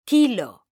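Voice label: "quilo", Spanish, female; mekanta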